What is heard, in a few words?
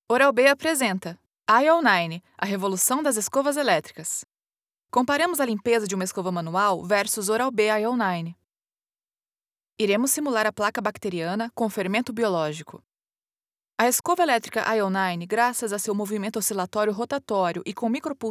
corporate presentation informative professional interesting no background; oral-b